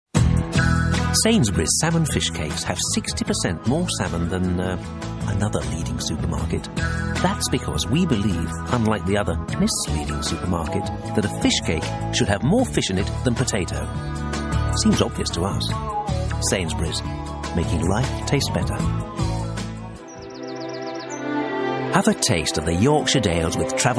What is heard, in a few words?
Commercial